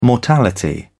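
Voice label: "mortality", British English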